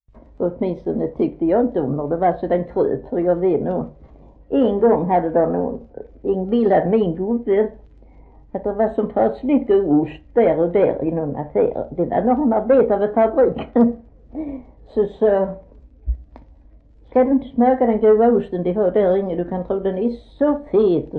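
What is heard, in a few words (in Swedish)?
Fleninge Skåne, Svenska sagor dialekter; Folkminnen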